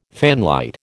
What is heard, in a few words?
"fanlight", American